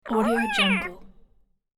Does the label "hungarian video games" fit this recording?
No